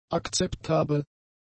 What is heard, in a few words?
"akzeptabel", german; adjectives